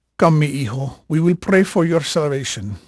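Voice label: Spanish male, voice; Pirates Of The Caribbean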